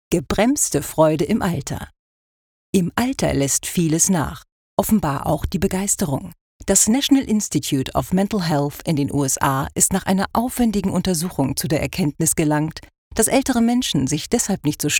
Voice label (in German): Kommentar Voice Over